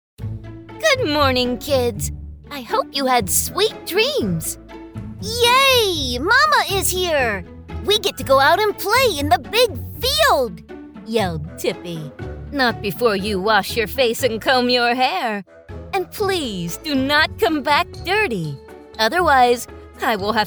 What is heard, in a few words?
Childrens Audiobook